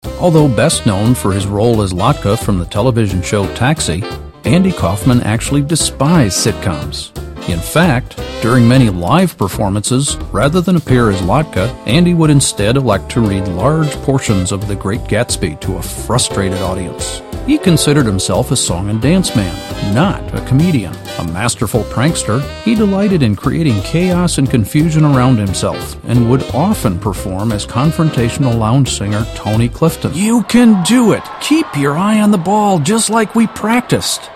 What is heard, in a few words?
Narrative